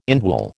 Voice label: "indwell", American